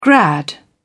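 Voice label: "grad", English, British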